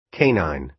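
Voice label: "canine", American English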